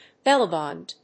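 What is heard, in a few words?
"bellyband", English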